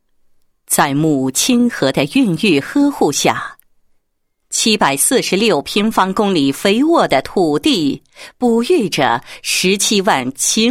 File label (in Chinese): 女-旁白; 土地开发整理纪实